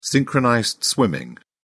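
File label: "synchronized swimming", English, British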